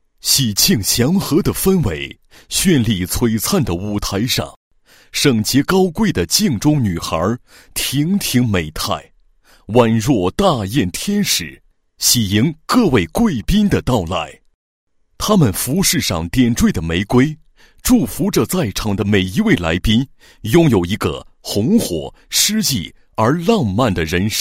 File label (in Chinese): 男 开幕词; 大雁城产品品鉴会暨认筹